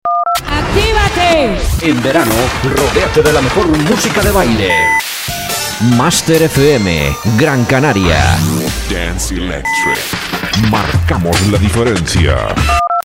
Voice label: jingle; master fm